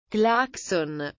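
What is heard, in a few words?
"claxon", Italian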